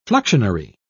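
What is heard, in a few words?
"fluxionary", British English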